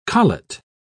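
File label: "collet", British English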